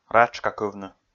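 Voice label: "ратшкакывны", Komi, male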